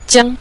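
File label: "jiang", Mandarin, neutral tone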